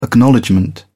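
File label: "acknowledgment", British English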